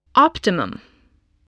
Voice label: "optimum", English voice

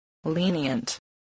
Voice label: "lenient", spelling

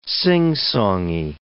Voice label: "singsongy", words

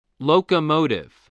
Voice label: "locomotive", spelling

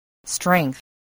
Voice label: "strength", spelling

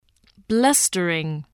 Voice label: "blustering", spelling

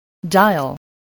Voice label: "dial", spelling

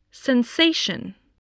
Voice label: "sensation", english spelling

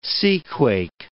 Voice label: "seaquake", English

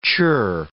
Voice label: "churr", English

